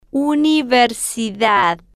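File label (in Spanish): "universidad", Spanish, female, slow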